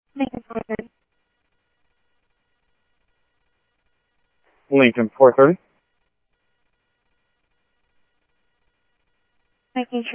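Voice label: Sheriff East County, scanner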